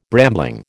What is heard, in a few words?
"brambling", American